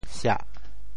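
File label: "siah4", Teochew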